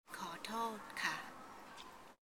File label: I m sorry male; real life language